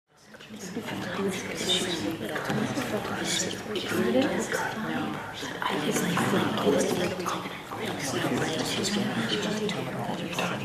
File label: People in a close room talking